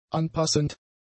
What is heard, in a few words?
"anpassend", German; adjectives